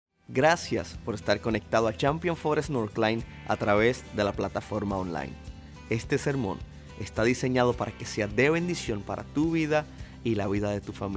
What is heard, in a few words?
Sermon Spanish